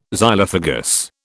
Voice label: "Xylophagous", British